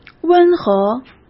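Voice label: "温和", Mandarin Chinese; chinese-flashcards; hsk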